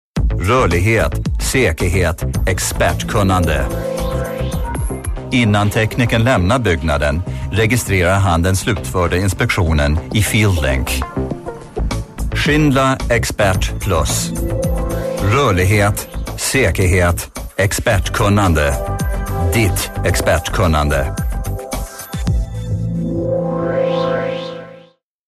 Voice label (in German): SE Werbung Kraftvoll